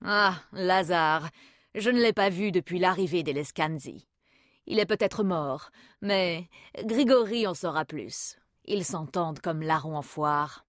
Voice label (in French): Voix Femme Agee, Jeu vidéo